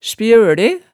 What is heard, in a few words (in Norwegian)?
"spjøle", hemsedalsmaalet